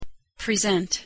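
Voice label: "present" verb, English pronunciation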